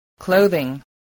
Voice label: "clothing", voice